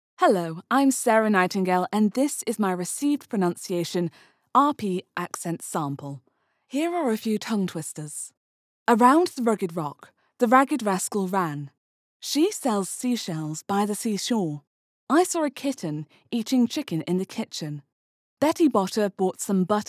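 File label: Accent Sample RP, british rp, female voice; Location: London, UK; english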